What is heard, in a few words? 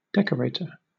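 "decorator", British English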